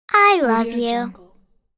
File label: child saying "I love you"